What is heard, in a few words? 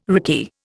"rickey", Indian